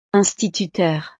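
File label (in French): "instituteur", French; profesiones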